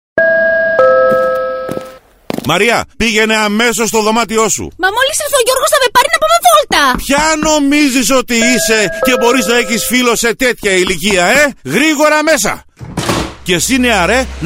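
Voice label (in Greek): άνδρας γυναίκα, Ραδιοφωνικά spot; Ραδιοφωνικό Spot Νο5; gymnasio; ΚΕΝΤΡΟΥ ΠΡΟΛΗΨΗΣ & ΕΝΗΜΕΡΩΣΗΣ κατά των Ναρκωτικών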